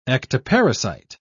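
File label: "ectoparasite", British English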